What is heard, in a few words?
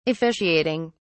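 "officiating", British English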